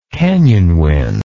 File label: "canyon wind", English